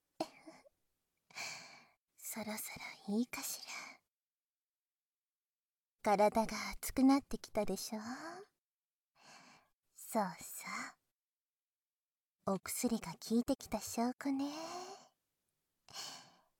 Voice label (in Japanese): 触手で耳攻め（バイノーラルマイク 効果音あり大きめ）